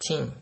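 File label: "tin", Nigerian Pidgin, male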